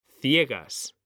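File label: "CIEGAS", Spanish, male; mekanta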